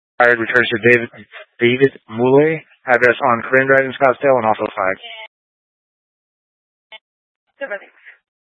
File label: Scottsdale Police, Simulcast